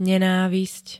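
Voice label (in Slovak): "nenávisť", Slovak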